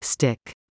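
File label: "STICK", English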